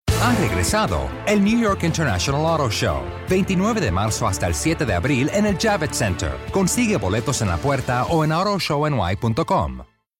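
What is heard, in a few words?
Latin American Spanish, Commercial; GoLocalise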